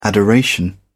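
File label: "adoration", British English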